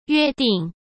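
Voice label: "约定", Mandarin Chinese; hsk; chinese-flashcards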